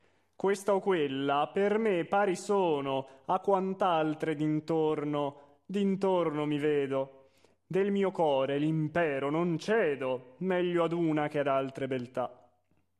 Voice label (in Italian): espressivo; Rigoletto